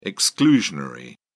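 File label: "exclusionary", British English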